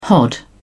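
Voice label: "hod", British English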